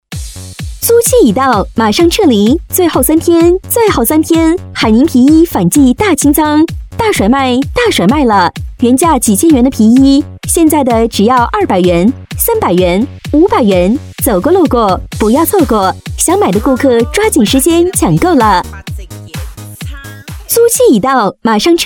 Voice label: 女 促销 最后三天

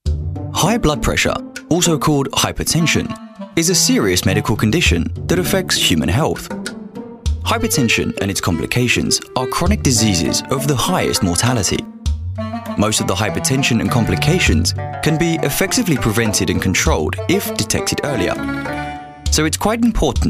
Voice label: 英式男声, 男声, 飞碟风格

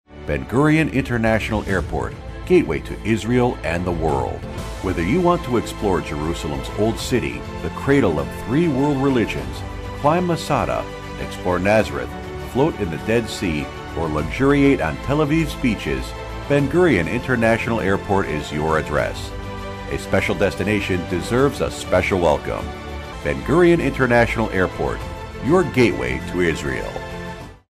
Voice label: 外籍英男, 机场宣传片

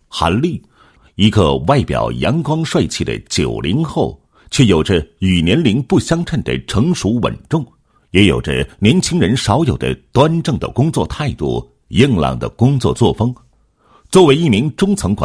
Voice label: 男 视频脚本; 年优秀管理者VCR脚本

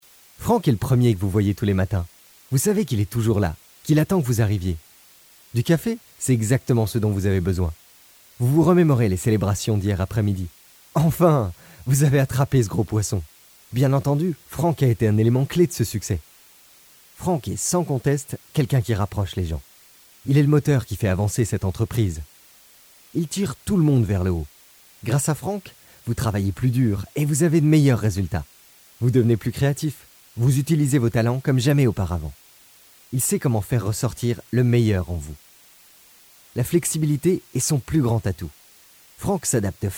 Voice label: 外籍法语男 法语商业广告 commercial French

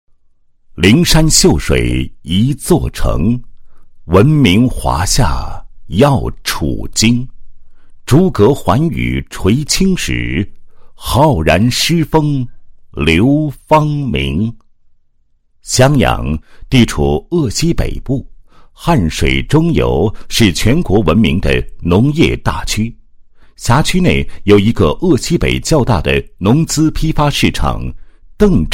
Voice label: 男 解说; 新时代的执法人

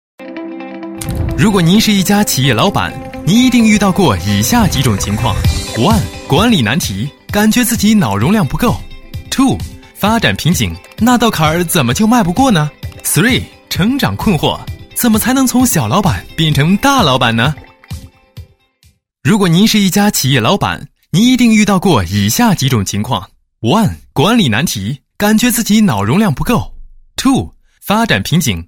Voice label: 男 栏目包装 宣传; 智慧谷

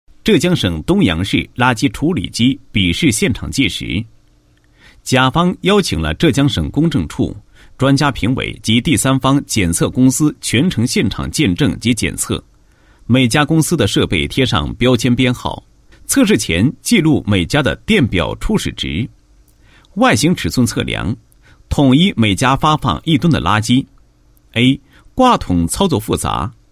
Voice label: 男 解说; 某市垃圾处理机比试现场纪实

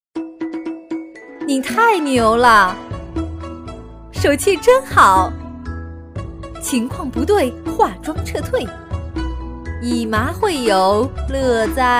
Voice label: 女 游戏 麻将

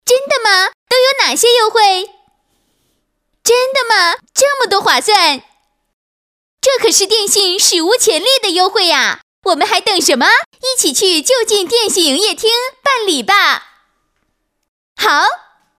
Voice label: 女 年轻甜美, 广告促销 促销 电信 女; 促销男女; 国语女声配音

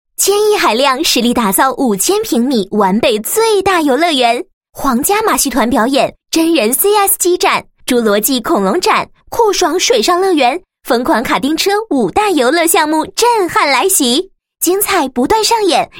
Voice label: 女 房地产广告 宣传简介; 海亮悦府

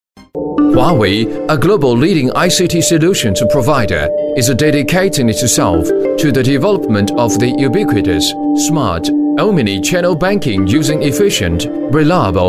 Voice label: 英男; 华为金融

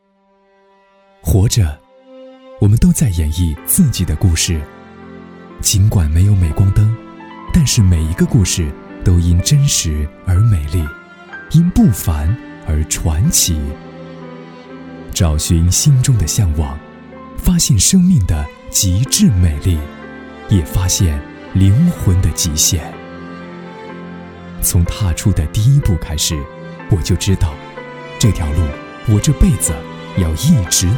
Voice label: 温情 品质, 国语男声配音; 传奇人生; 大气沉稳

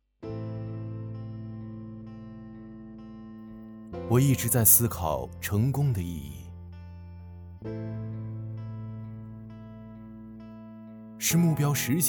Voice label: 宣传片; 中康

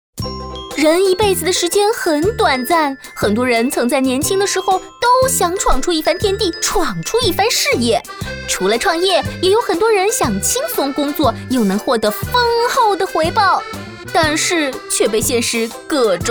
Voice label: 女 广告 轻松活泼 煽动; 蚂蚁计划; 微商

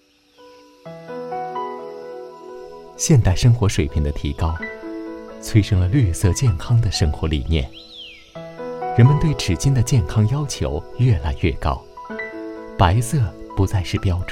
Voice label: 男, 电视广告

